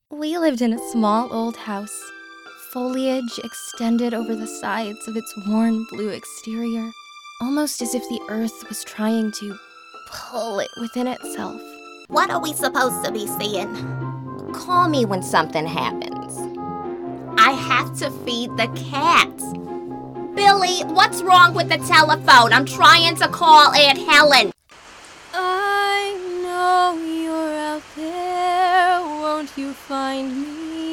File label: english american podcasts